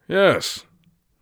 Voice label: yes command, mage voice; Mage Voice Pack

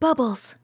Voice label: bubbles, RPG Voice; RPG Voice Starter Pack

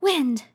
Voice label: wind, RPG Voice; RPG Voice Starter Pack

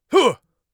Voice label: attack, mage voice; Mage Voice Pack

